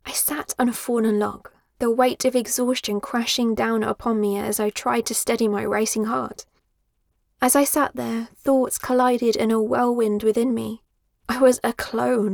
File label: sci-fi, emotional reflection